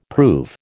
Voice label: "prove", American